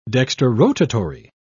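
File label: "dextrorotatory", British English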